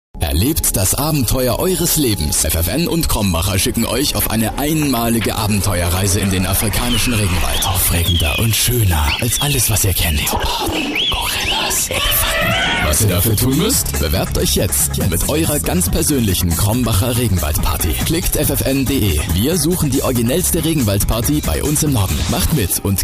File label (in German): Werbung Lebendig